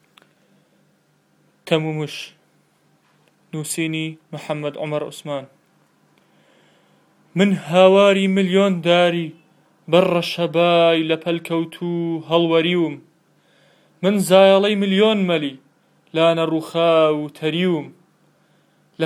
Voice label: fog and haze kurdish; city of nonexistence